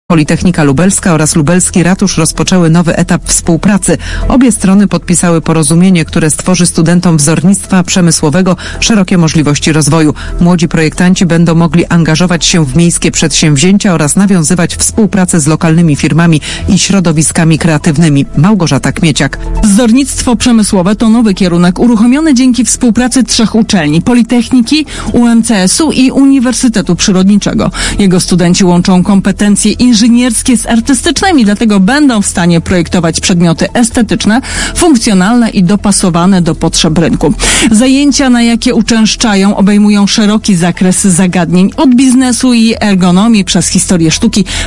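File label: radio news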